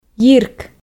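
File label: "йирк", Komi, female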